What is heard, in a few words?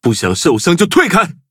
battle, zh-hant; Cookie Run